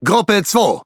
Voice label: Speech Pilots Torpedo, DE; World Of Warships

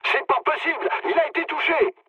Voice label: aircraft destroyed mood low, speech fr

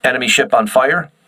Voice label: voice message enemy on fire, crew ships english

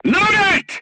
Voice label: voice message load, crew tanks portuguese

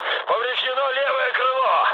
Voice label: left wing, mood med, speech ru; War Thunder

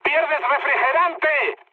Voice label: damaged water, mood high, speech; War Thunder

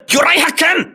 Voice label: voice message torpedo, crew ships japanese

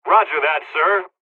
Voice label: dlg, hungarian; War Thunder

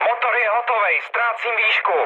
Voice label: damaged engine, speech cze, mood med; War Thunder

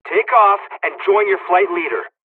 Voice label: takeoff, dialogs czech; midway; War Thunder

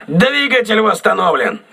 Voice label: voice message engine repaired, crew ships russian

